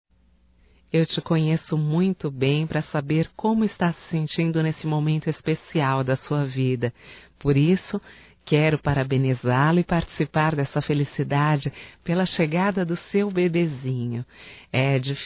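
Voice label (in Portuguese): PARABENS PELO BEBê (Feminino), Telemensagens; Homenagens; Telemensagens 2017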